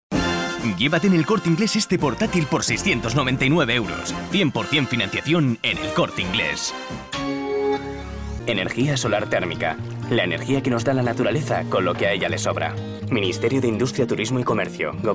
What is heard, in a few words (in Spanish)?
Masculino